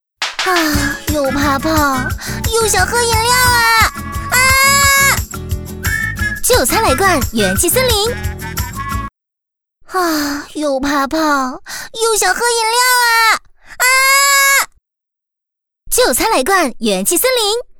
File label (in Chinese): B女 广告 活力广告; 元气森林